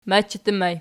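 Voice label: "machattur", ergative plural, Archi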